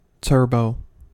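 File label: "turbo", American English; pronunciations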